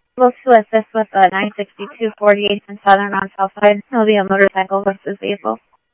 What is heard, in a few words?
Tempe Police, scanner audio; SimulcastF-Tempe